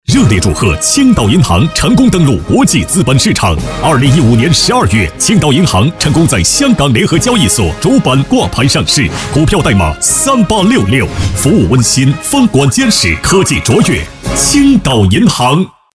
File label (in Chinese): 男 广告